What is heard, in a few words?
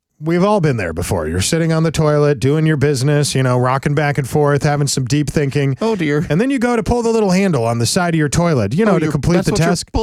RADIO AD; nicks plumbing radio ads